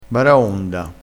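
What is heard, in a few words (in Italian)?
"baraonda", vocabolario dialettale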